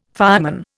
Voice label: "fireman", Indian